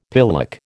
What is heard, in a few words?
"pillock", American